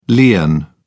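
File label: "lien", British English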